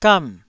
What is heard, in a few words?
"gam4", Taiwanese